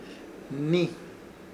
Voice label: "Hnii" de o si, Ishir Chamacoco